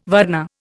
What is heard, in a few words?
"varna", Indian